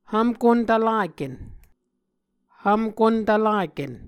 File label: "hvmkontvlaken", Muscogee; Mvskoke Words with Voicing Consonants; A Dictionary of Creek/Muskogee; SIL International